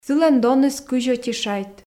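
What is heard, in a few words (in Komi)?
сылӧн доныс кызь ӧти шайт, Komi, female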